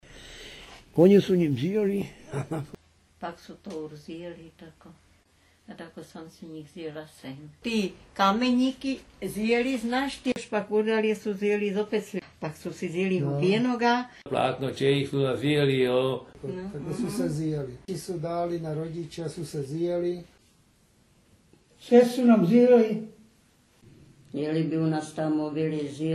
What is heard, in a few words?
Long and falling ie: ziêli 'took'; l-participle masculine plural